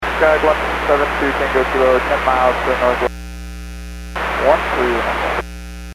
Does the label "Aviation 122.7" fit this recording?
Yes